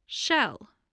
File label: "shell", spelling